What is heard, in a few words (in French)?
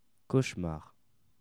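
"cauchemar", French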